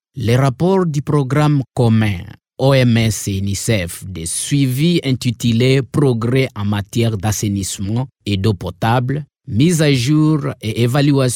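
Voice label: French African male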